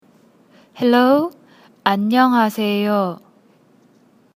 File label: Hello Korean